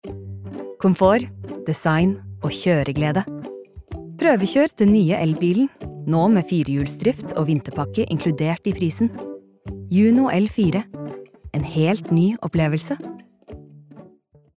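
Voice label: Norwegian advert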